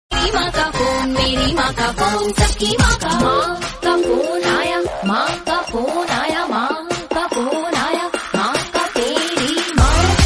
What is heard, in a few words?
Funny Tone